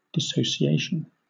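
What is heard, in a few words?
"dissociation", British English; pronunciations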